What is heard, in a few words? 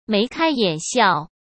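"眉开眼笑", Mandarin Chinese; chinese-flashcards; hsk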